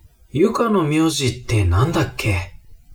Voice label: man